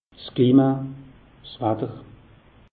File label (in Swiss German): "klima", alsace; meteo